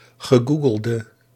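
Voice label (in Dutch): "gegoogelde", Dutch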